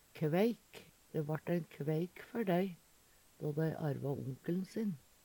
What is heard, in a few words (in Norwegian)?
kveik, Numedalsmål